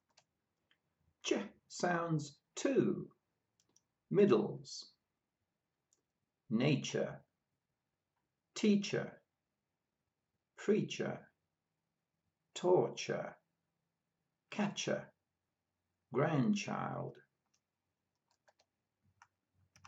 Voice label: CH sounds